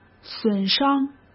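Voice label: "损伤", Mandarin Chinese; chinese-flashcards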